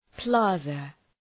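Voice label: "plaza", English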